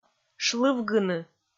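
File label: "шлывгыны", Komi, female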